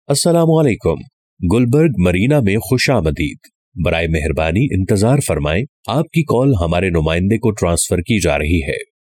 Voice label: IVR; Gulberg Marina